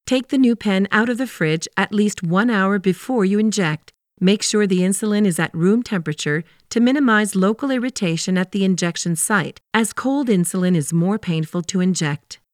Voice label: medical patient instruct